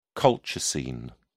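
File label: "colchicine", British English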